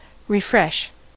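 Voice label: "refresh", American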